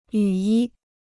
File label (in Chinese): "雨衣", Chinese